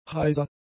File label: "heiser", German; adjectives